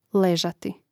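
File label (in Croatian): "lezati", Croatian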